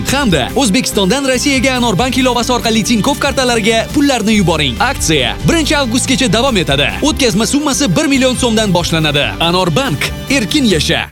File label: Reklamnyi rolik uzb